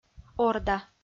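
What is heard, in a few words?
"орда", Komi-Zyrian, female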